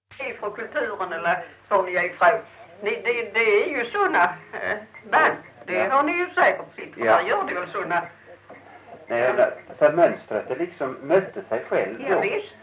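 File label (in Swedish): Lilla Harrie Skåne, dialekter svenska sagor; Folkminnen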